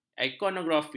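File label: "ikonografi", Malay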